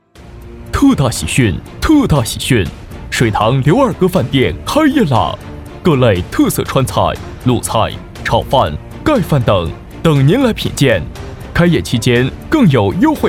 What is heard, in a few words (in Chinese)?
促销饭店